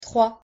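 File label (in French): "trois" French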